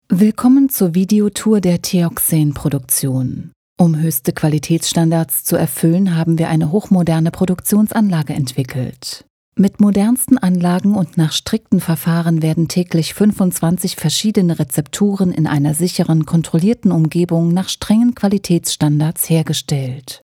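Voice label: german audio guides, Femelle; doubleuse professionnelle allemande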